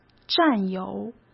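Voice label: "战友", Mandarin Chinese; hsk; chinese-flashcards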